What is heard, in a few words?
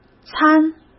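"餐", Mandarin Chinese; hsk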